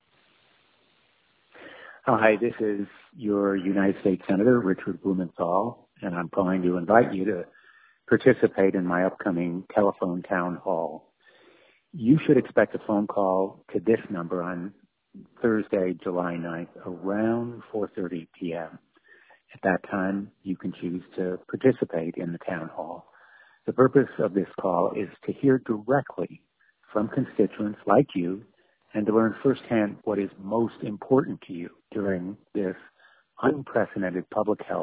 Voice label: robocall; blumenthal